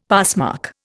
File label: "passmark", Indian; Dictionary